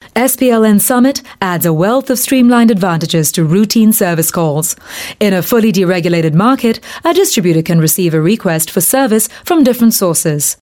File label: Asian English